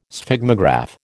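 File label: "Sphygmograph", American English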